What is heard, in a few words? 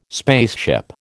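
"spaceship", American English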